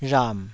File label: "rham3", taiwanese